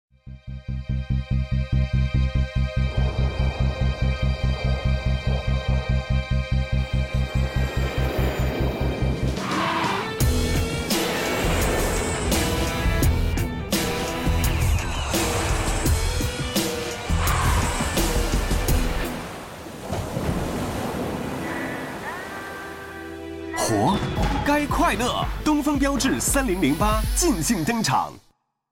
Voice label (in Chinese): 广告 汽车 时尚; 标致3008